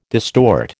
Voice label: "distort", American